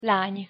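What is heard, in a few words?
"lany", Hungarian pronunciation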